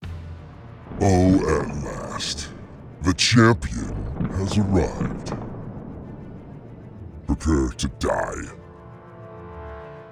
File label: Deepvoice Badguy Monster Robot Videogame